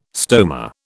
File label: "stoma", British